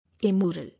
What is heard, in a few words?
"Amoral", English